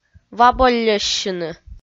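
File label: "ваболльӧссьыны", Komi-Zyrian, female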